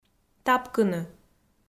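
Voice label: "тапкыны", Komi, female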